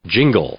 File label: "jingle", English; noun